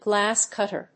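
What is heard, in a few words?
"glass cutter", English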